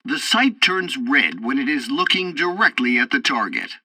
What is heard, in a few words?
tutorial assault bombing, english; War Thunder